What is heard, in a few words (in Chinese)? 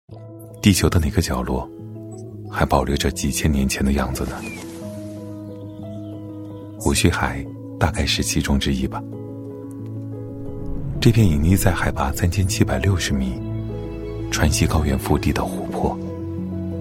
男 【时尚品质】 手机广告, 磁性沉稳 高端大气; 三星